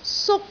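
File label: "suk1", Cantonese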